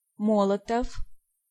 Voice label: "Molotov", Pronunciation